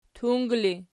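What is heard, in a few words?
"tung" ergative, Archi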